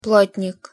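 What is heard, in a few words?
"plotnik", Russian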